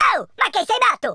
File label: oi nutter, speech Italian